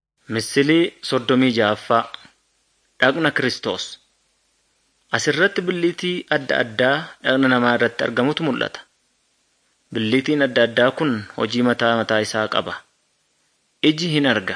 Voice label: good news oromo wellega

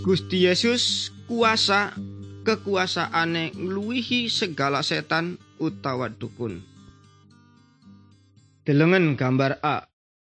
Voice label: good news jawa indramayu, javanese bible

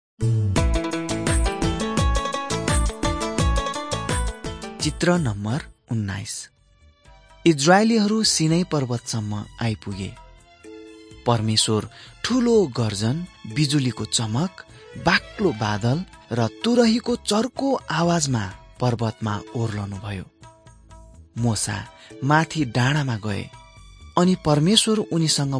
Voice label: mighty men of god nepali

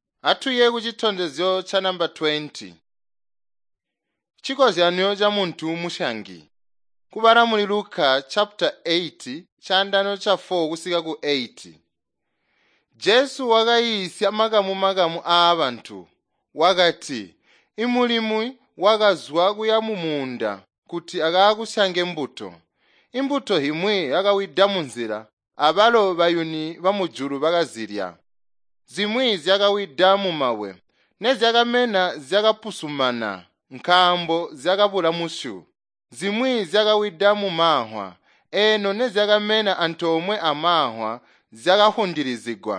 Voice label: tonga plateau, drama; chitonga bsz nt drama

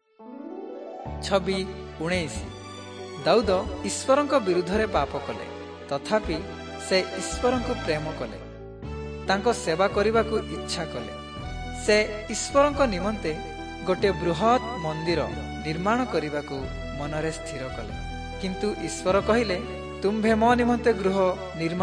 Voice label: southern oriya; servants of god

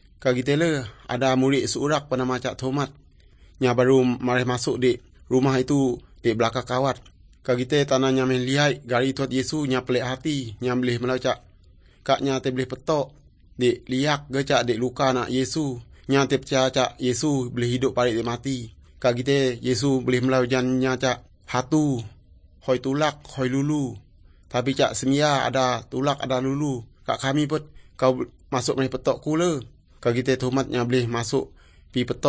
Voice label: good news, urak lawoi

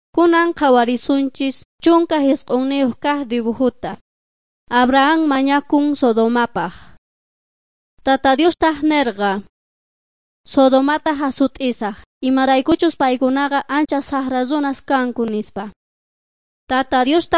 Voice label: beginning with god quechua s bolivian cochabamba

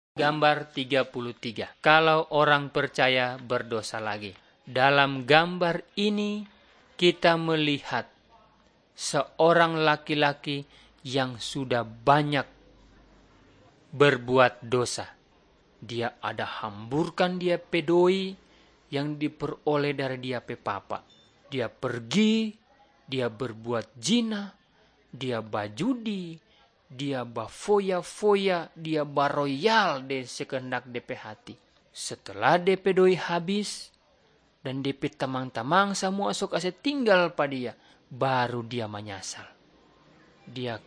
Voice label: malay manado; good news

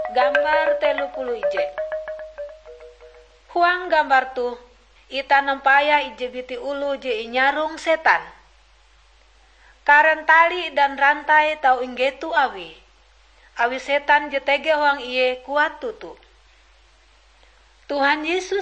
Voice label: kabar bahalap good news ngaju, drama

